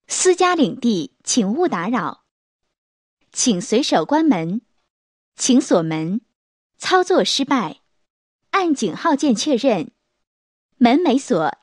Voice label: 英女 英文 提示语; 私家别墅

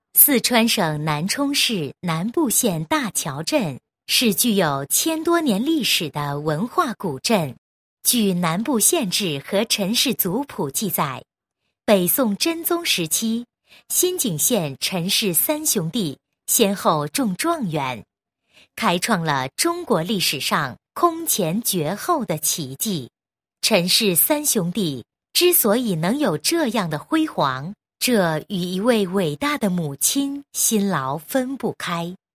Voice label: 女 文化历史 解说; 大德良母育三元解说

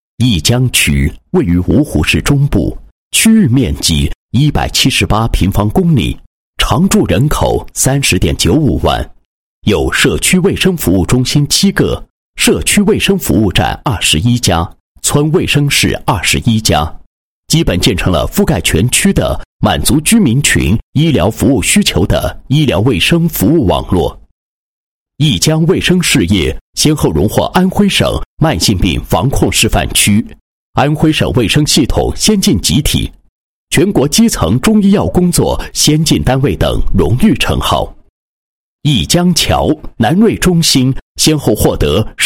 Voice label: 男 工作纪实 社区创建市级社区卫生服务示范区工作纪实

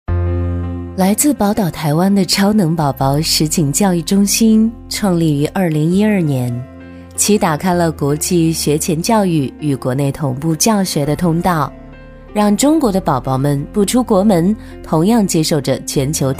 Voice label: 女 专题 台湾腔

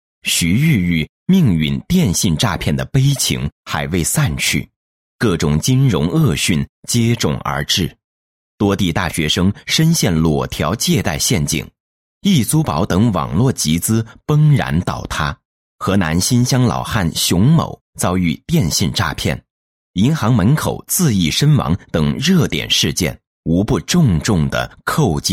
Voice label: 男 企业; 交通银行贵州省分行金融知识进万家

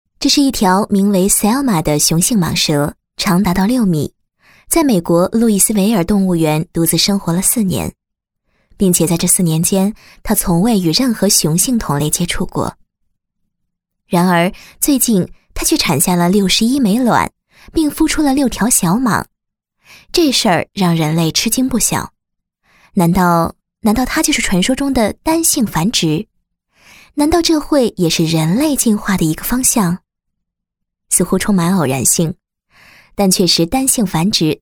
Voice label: 国语女声配音 解说 画外音; 繁殖 动物; 单性繁殖解说

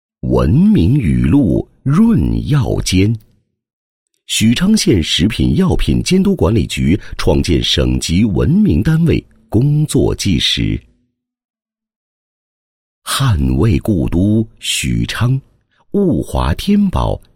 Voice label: 男 汇报 录音; 文明雨露润药监 确保食药安全

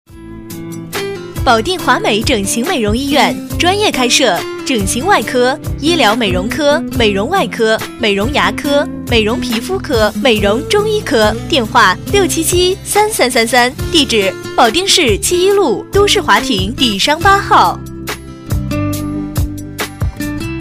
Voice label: 女 保定华美整形美容医院广告, 国语女声配音 彩铃广告

